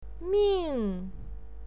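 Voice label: "ming" fourth tone, pinyin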